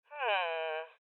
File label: Voices Loot Spotted Female